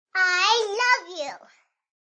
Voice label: Kid Says I Love You; Kid talking.

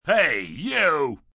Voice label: Hey You, Calls, attention, male; Talking

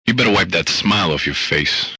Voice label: Wipe Smile Off, Male; Attitude

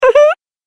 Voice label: "Uh Huh", Cartoon Game Voice; Cartoon Voice Sound Effects

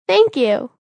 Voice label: Thank You, Female, child; Talking; Voice Box

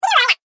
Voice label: Cartoon Annoyed Munchkin; Cartoon Voice

